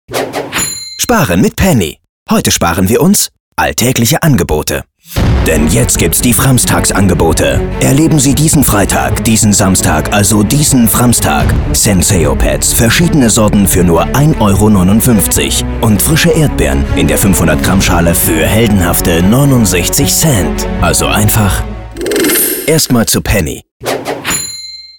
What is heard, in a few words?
Trailer; Penny; Werbung